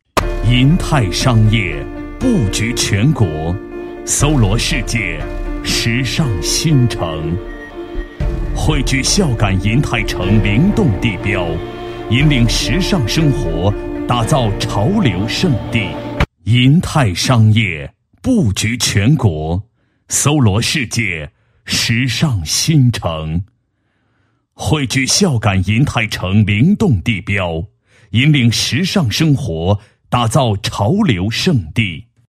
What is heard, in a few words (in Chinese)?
男-宣传广告; 孝感银泰城